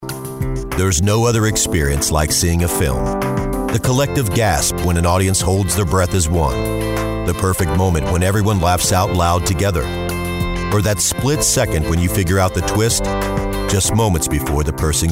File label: Trailer Stero